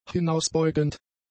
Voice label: "hinausbeugend", German; adjectives

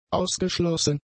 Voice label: "ausgeschlossen", German; adjectives

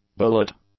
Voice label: "bullet", English; nouns

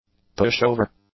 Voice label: "pushover", English; nouns

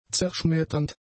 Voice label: "zerschmetternd", German; adjectives

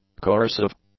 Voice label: "coercive", English; adjectives

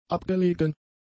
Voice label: "abgelegen", German; adjectives

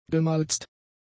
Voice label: "gemalzt", German; adjectives

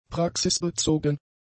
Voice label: "praxisbezogen", German; adjectives

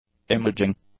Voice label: "imaging", English; nouns

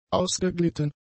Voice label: "ausgeglitten", German; adjectives

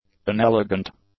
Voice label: "inelegant", English; adjectives

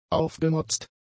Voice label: "aufgemotzt", German; adjectives

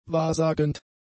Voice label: "wahrsagend", German; adjectives

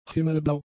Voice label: "himmelblau", German; adjectives

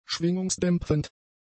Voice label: "schwingungsdämpfend", German; adjectives

